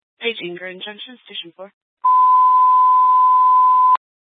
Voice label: Fire Department Dispatch, scanner; Grand Junction